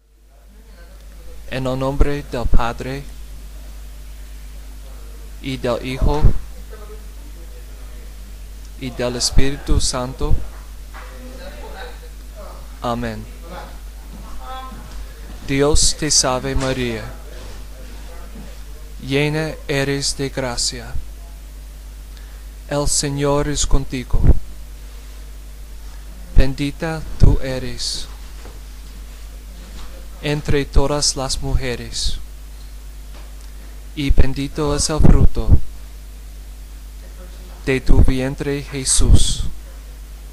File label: Hail Mary slow, Spanish, prayers